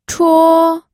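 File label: "chuo", pinyin, first tone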